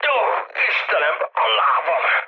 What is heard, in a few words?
damaged pilot, mood low, speech hun; War Thunder